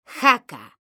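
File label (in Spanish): "jaca" Spanish, female; mekanta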